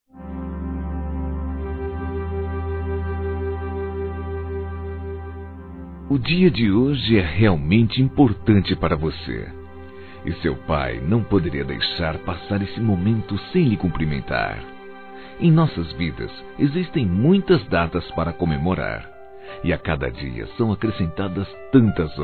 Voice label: Romantica, Telemensagens; Aniversário Casamento (Pai Envia); Telemensagens 2017; Românticas

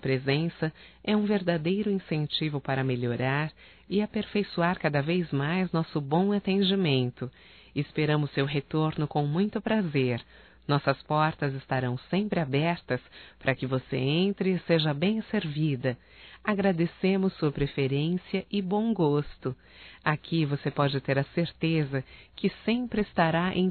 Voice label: CLIENTE EMPRESáRIA(F); Profissionais; fem; Telemensagens 2017

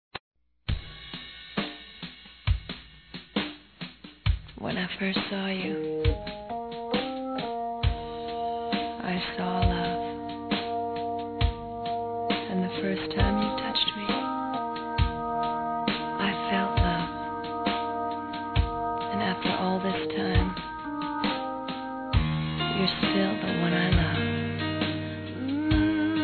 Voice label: Comeco de Namoro, Telemensagens Românticas fem

